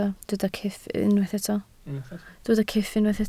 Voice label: "cyff", Welsh